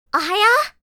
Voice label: "Good morning", anime girl character, japanese voice over